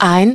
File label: "one", masculine; iOS 4